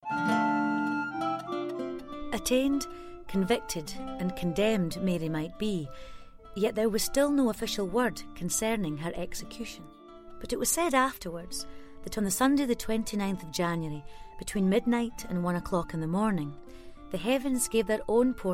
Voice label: Documentary, Scottish voice over

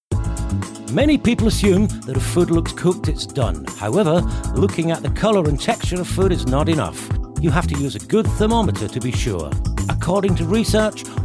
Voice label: Commercial

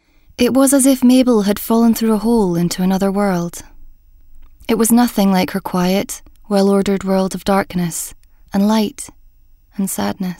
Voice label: Scottish adult narration

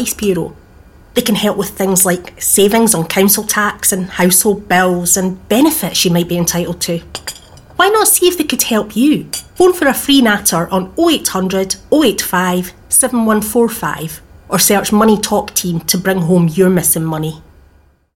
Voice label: Radio, Scottish; ScotGov

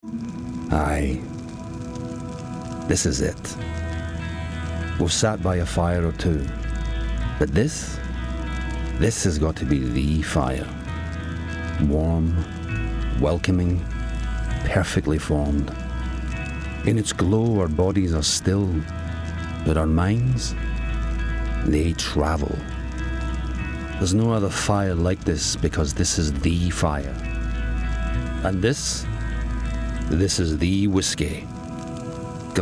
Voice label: Commercial

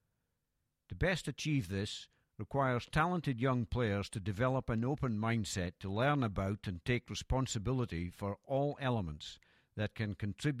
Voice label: scottish golf narration

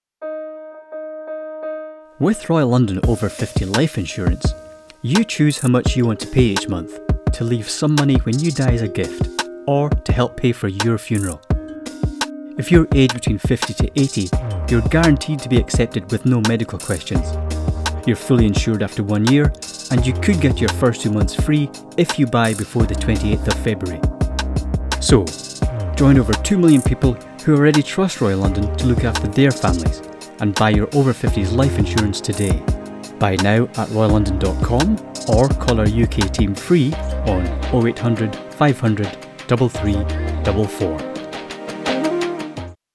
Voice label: commercial

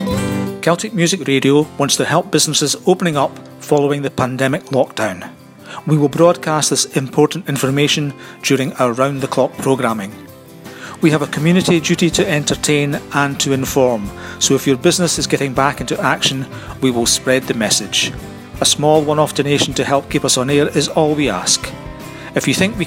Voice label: Explainer

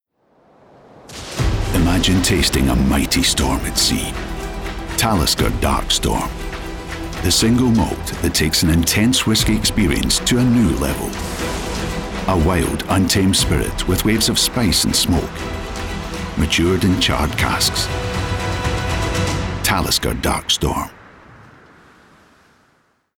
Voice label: Whisky Advert

